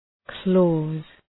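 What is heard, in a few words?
"clause", English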